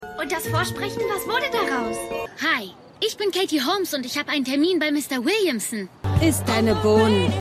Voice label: Maedchen; Katie Holmes; Calebs Mutter

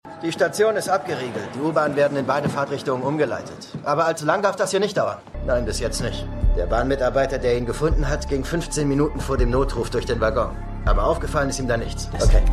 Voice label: Polizist, Stimmproben; Law & Order

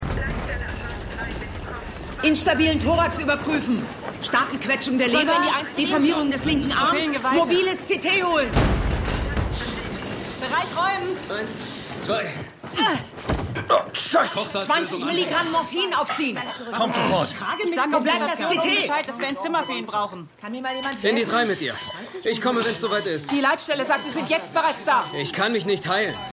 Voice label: Funk Aerztin; The Lincoln Lawyer; Stimmproben; USA

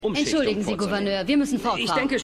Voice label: Moderatorin; Scandal

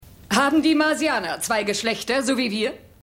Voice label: Journalistin; Mars Attacks

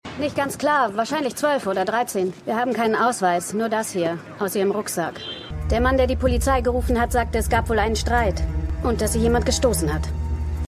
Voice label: Polizistin; Law & Order

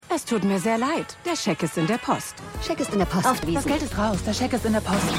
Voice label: Telefonistin; High Potential

